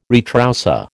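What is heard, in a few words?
"retroussé", American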